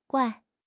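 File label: "guai4", Chinese; HSK4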